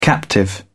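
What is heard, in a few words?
"captive", English, British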